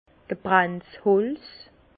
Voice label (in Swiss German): "gebrannte holz", Bas Rhin; vin; bois brulé